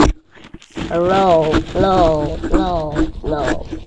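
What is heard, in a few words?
"low", spoken word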